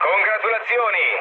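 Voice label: Italian player killed enemy mood low, speech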